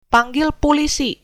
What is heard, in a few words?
police, sundanese